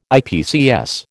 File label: "IPCS", American